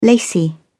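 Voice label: "lacy", British English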